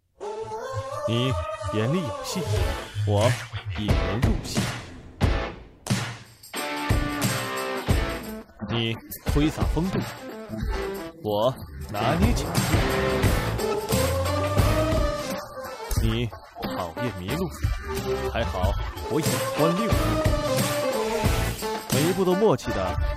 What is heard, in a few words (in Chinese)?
青春活泼汽车广告